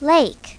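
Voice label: "lake", English; My Talking Dictionary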